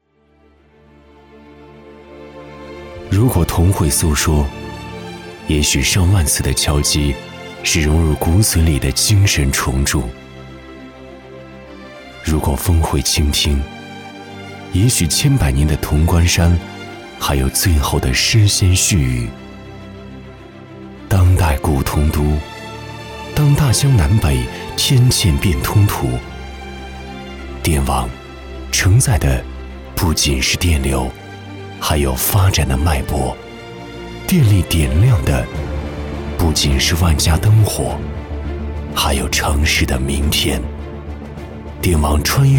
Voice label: 男 独白 深情讲述

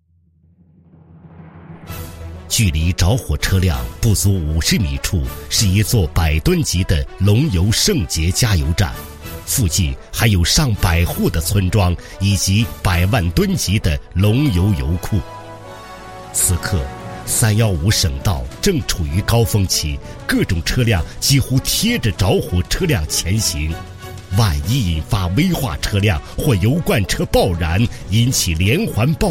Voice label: 男-宣传片-救火

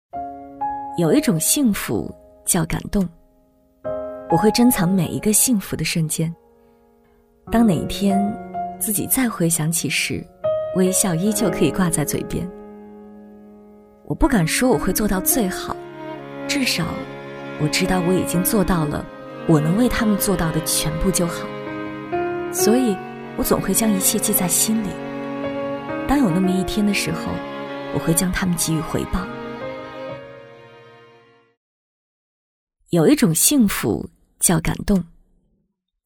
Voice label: 女 旁白; 老师

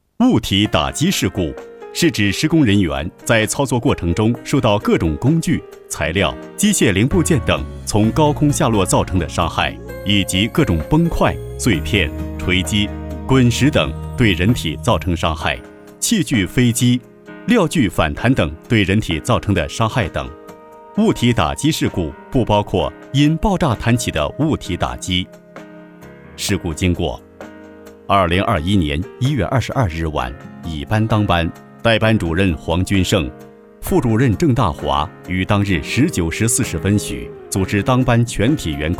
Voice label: 男 工程解说 物体打击事故

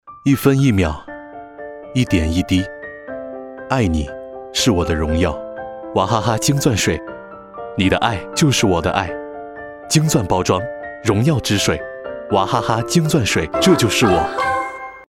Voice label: 广告 矿泉水; 娃哈哈矿泉水

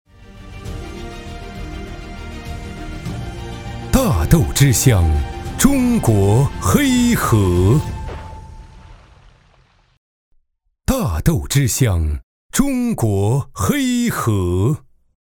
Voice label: 男 广告 央视 落版; 中国黑河

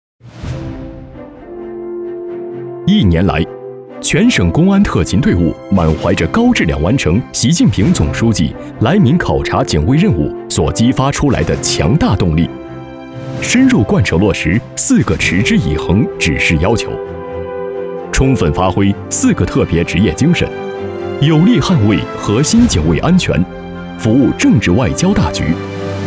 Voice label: 男 汇报; 公安特勤队伍

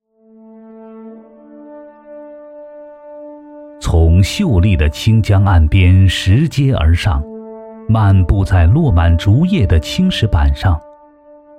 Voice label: 男 纪录片 老屋

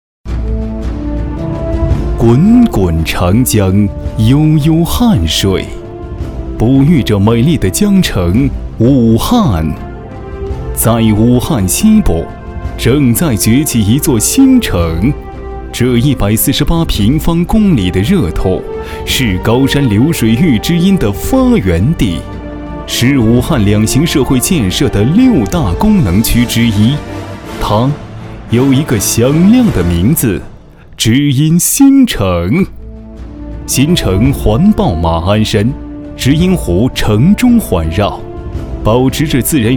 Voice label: 男 专题 大气专题

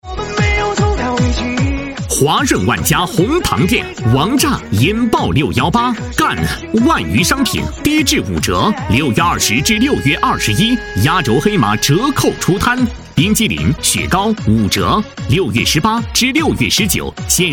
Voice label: 男 激情促销; 华润万家

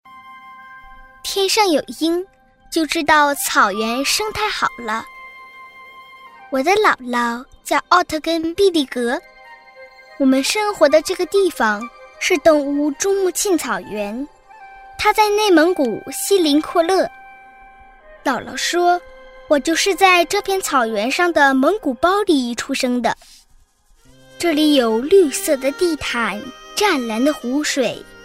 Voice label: 男 真童音 女童样音; 姥姥的故事

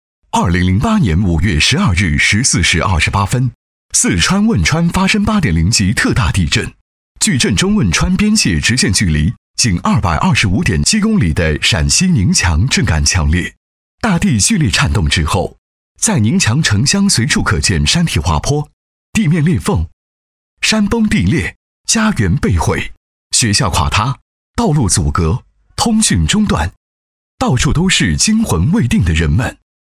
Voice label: 纪实专题解说配音; 地震灾后重建十周年

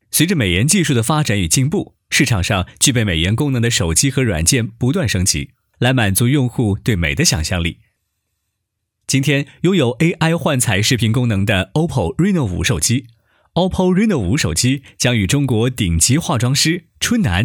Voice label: 男 科技节目; OPPO; Reno5

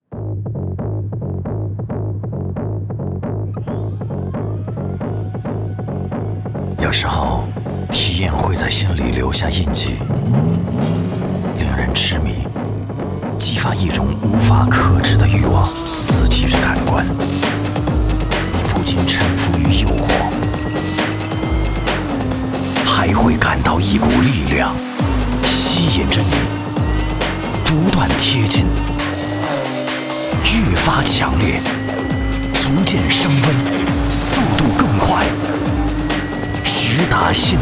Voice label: 男 广告, 国语男声配音; 捷豹F-PACE

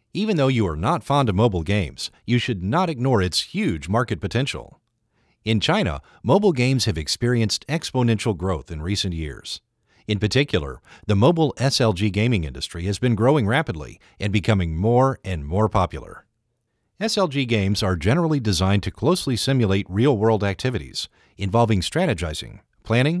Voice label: 外籍男, 宣传片; 某游戏公司

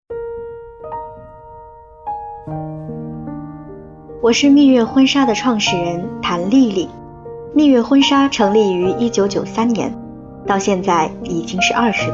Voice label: 蜜月婚纱人物自述

